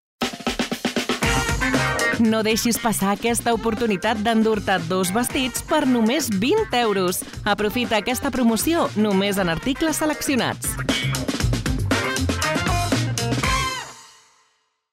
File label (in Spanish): VESTIDOS CAT; HUMANA